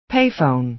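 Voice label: "pay phone", American English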